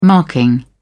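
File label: "marking", British English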